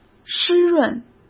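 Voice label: "湿润", Mandarin Chinese; chinese flashcards; hsk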